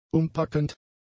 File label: "umpackend", German; adjectives